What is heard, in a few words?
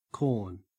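"corn", Australian English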